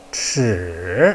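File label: "chi", Mandarin Chinese, third tone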